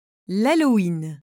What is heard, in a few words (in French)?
"halloween", French